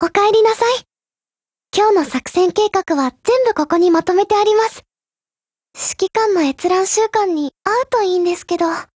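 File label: HELLO Japanese, Voice; GF Alarm; M1895MG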